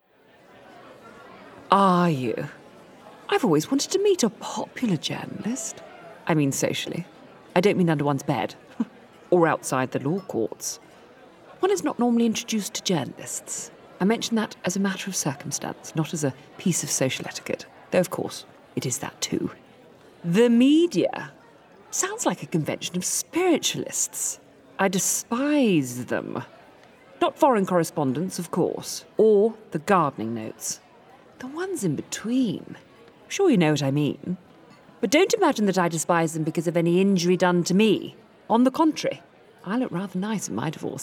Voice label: Witty Upper Class Socialite Heightened RP Radio Drama